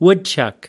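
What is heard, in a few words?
"woodchuck", US English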